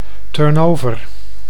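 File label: "turnover", Dutch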